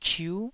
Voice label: "chiu9", Taiwanese

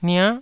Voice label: "nia", Taiwanese

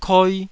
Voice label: "koi2", taiwanese syllables

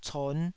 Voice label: "con5", Taiwanese

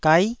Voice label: "gai9", Taiwanese

